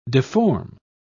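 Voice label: "difform", en, British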